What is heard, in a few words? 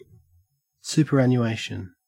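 "superannuation", Australian English; pronunciations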